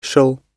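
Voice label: "shall", British English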